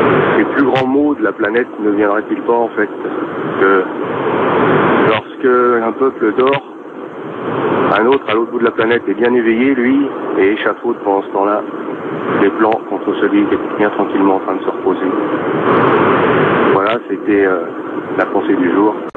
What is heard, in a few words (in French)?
La nuit repondeur